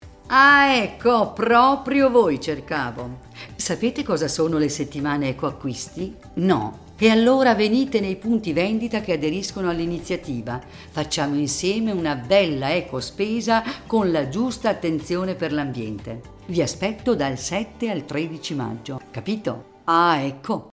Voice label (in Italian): spot radio; Ecoacquisti